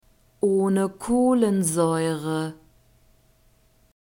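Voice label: "ohne Kohlensäure", German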